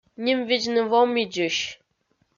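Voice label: "нимвидзны вомидзысь", Komi, female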